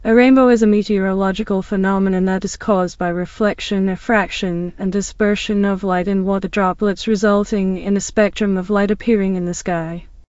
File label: speaker, en GB; piper voices; vctk